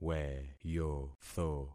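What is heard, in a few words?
"weyotho", concatenated syllables; Affixing experiment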